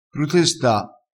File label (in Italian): "prutesta", crespinese